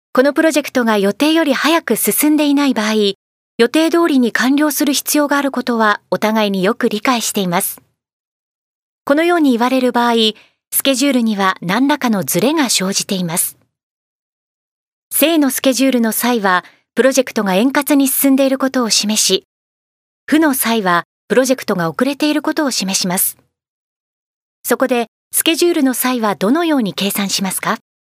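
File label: Japanese female voice-over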